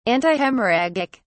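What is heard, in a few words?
"antihemorrhagic", British English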